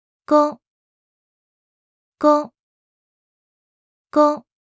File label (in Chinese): "工", mandarin; Traditional Chinese